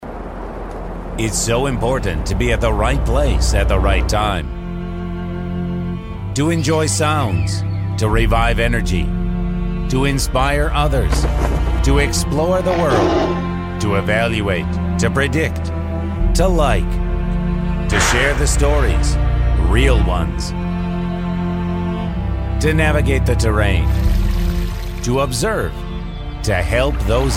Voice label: Eng